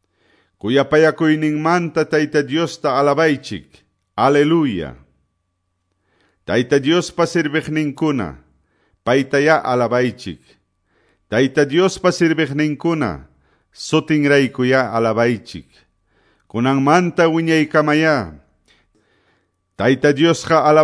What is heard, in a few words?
salmos, quechua ayacucho